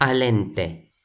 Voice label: "alente", Toki Pona

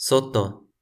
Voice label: "soto", Toki Pona

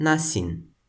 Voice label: "nasin", Toki Pona

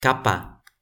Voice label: "kapa", Toki Pona

